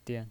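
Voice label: "dian" Mandarin Chinese, neutral tone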